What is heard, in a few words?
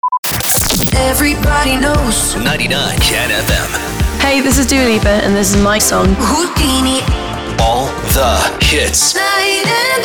SWEEPER, POWER INTRO, 117BPM